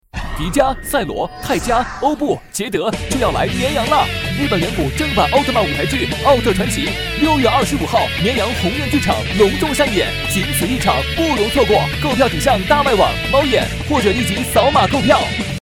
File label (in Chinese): 男 广告; 奥特曼